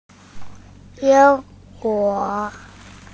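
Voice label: "jie2 guo3", Mandarin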